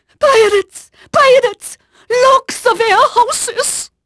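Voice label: Dutch female, voice; Pirates Of The Caribbean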